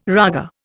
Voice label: "raga", Indian